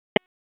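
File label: Raleigh Police North District Tactical; Wake; p25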